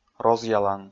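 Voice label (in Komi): "розъялан", Komi, male; kpv audio dict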